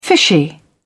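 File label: "fishy", British English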